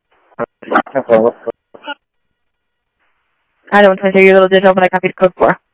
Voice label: Sheriff, scanner audio